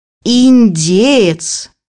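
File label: "turkey", Russian; bukvar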